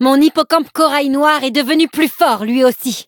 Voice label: levelup, fr; cookie run

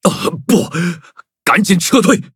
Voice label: defeat, zh-hant; cookie run

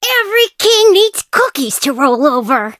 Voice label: touch, en; cookie run

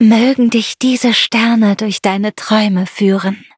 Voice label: loading, de; cookie run

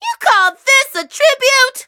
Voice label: level up en; Cookie Run

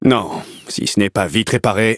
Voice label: defeat, fr; cookie run

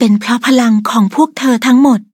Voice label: level up, th; Cookie Run

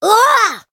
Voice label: hit, en; Cookie Run

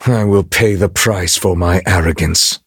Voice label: levelup en; Cookie Run

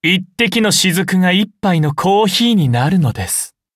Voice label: upgrade, ja; Cookie Run

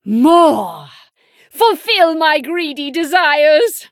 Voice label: levelup, en; Cookie Run

